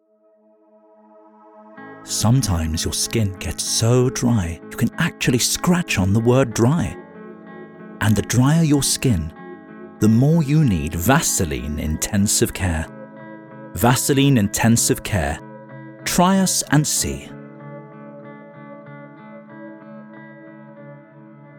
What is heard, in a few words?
Soft Sell English; voice